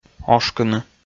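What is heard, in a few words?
"ошкыны", Komi, male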